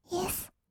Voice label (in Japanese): "イェス", kawaii voice; 1200 kawaii voice!!; 色々なワンショット